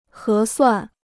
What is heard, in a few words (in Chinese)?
"合算", Chinese